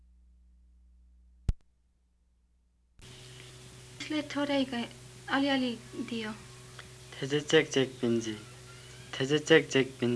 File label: Western Tamang